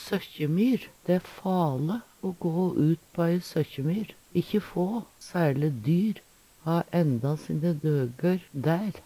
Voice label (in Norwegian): sokkjemyr, Numedalsmål